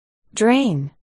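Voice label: "drain", spoken word, articulation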